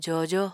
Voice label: "joojo", Apatani